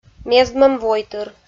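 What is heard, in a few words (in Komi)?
"мездмӧм войтыр", Komi-Zyrian, female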